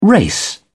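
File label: "race", English, uk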